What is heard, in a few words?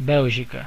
"belgium", pt BR; gcompris; geography